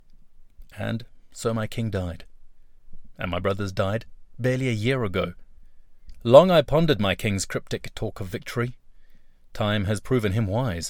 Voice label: english south african narration

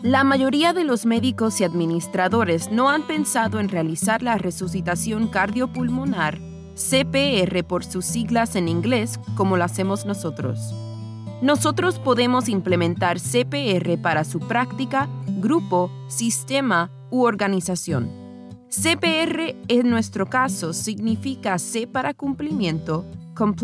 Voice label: spanish latin american medical narration

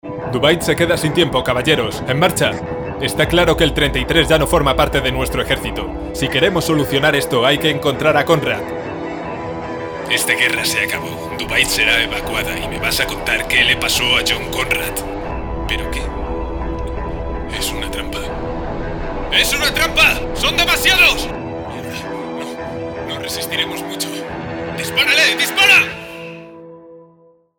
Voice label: spanish video games

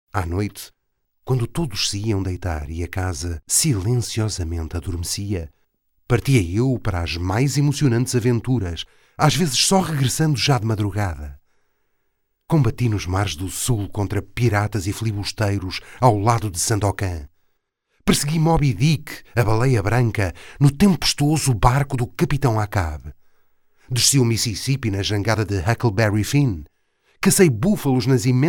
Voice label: portuguese audiobooks

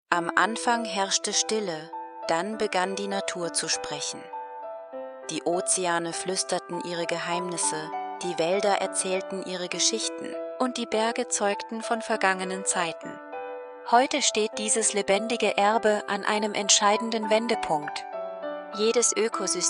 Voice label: german documentaries

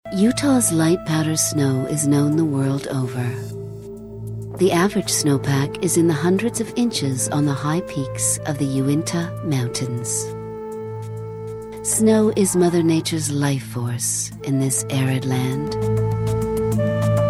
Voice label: english american documentaries

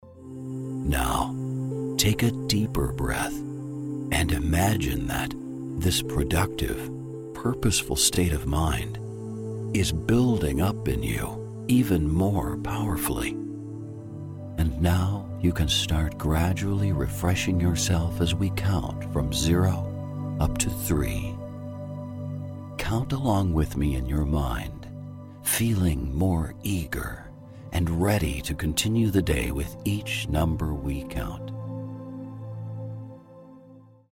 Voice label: english american

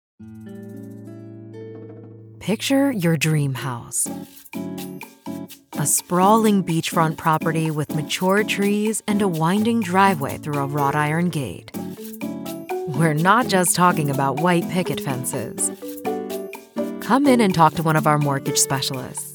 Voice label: english american real estate

